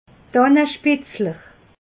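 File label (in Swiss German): "dannespitzel", Alsatian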